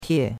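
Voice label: "tie4", Mandarin Chinese; Cours-de-Chinois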